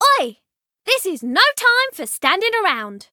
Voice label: jeers; tracer